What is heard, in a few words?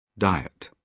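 "diet", British English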